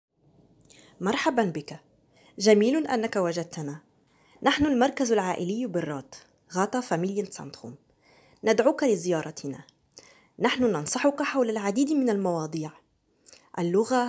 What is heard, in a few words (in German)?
arabisch; rather familienzentrum; caritas